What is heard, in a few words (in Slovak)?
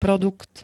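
"produkt", Slovak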